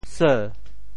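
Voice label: "seu2", Teochew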